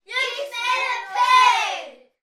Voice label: human group children x6 uk aged 3 to 8 shout you smell of poo